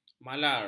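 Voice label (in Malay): "malar", Malay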